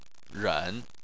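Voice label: "ren", Chinese, tone 3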